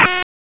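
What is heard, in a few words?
Raleigh Police Northeast District Tactical, p25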